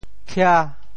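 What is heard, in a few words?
"khia5", Teochew; 潮州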